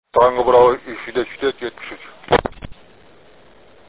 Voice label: QSO; KONFERANS ODASINA SATASMALAR